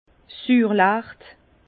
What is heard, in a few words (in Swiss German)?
"suurlecht", Bas Rhin; vin; mauvais amer